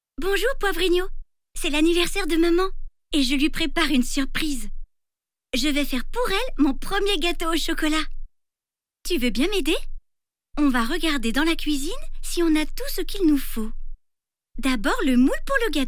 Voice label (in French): voix fille 12 ans; Wakatoon; Poivrinio